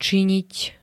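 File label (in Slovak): "činiť", Slovak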